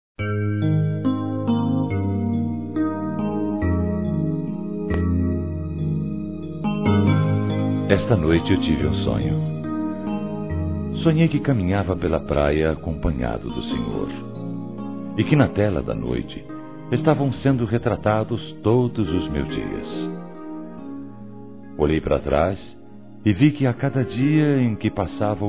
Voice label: PEGADAS, Gospel, masc

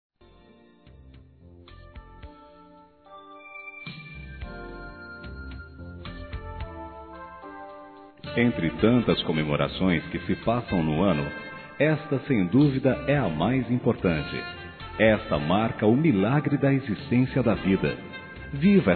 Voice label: ANIV. CLIENTE M, Telemensagens; Empresarial; masc; Telemensagens 2017

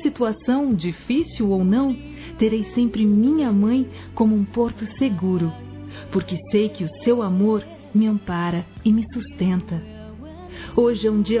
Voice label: MÃE VOCÊ É PERFEITA F, Telemensagens, Dia das Mães; Telemensagens 2017

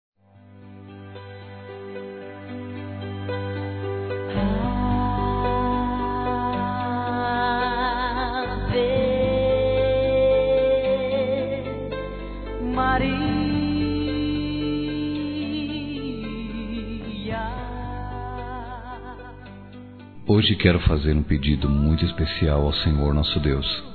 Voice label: MÃE, Masc; Dia das Mães; Telemensagens 2017

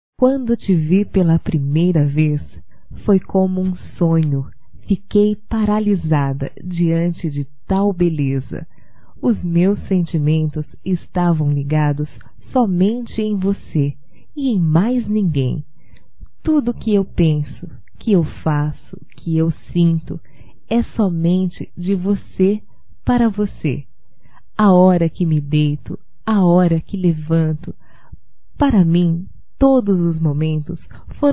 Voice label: DECLARAÇÃO DE AMOR, fem; Telemensagens 2017

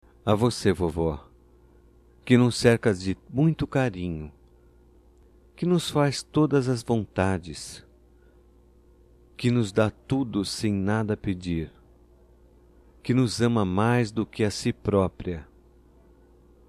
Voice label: DIA DA AVÓ - M, Telemensagens, Só Voz; DATAS COMEMORATIVAS; masc

